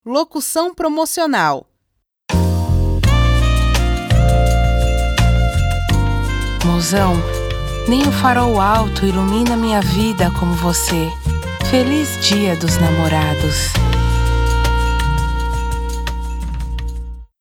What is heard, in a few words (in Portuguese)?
Locucao Promocional; FORD Dia dos namorados